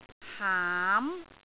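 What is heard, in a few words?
"haam2", Cantonese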